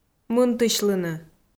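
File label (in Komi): "мынтысьлыны", Komi, female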